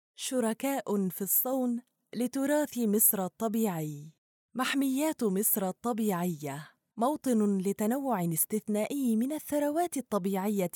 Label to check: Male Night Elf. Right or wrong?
Wrong